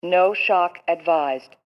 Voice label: Defibrillator, Defibrillator Female Robotic Voice No Shock Advised; Hospital; Emergency